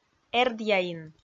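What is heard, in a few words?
"эрдъяин", Komi, female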